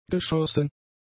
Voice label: "geschossen", German; adjectives